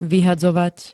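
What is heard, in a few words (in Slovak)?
"vyhadzovať", Slovak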